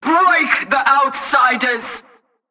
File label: Female Chokepoint Line, dialogue; NPC; Heist Cultist Chokepoint